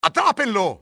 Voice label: get him, spanish soldier; XBOX